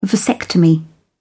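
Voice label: "vasectomy", British English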